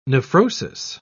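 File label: "nephrosis", British English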